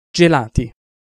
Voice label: "gelati", Italian Pronunciations